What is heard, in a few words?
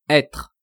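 "etre", French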